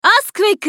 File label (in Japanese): ウィザード女; アースクエイク